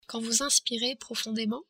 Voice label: "inspirez", French